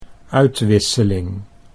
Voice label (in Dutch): "uitwisseling", Dutch